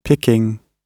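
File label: "picking", British English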